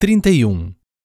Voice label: "trinta e um", Portuguese; numbers in portuguese

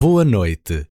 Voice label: "Boa noite", Portuguese

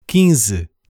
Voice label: "quinze", Portuguese; numbers in portuguese

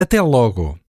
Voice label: "Até logo", Portuguese

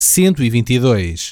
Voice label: "cento e vinte e dois", Portuguese; numbers in portuguese

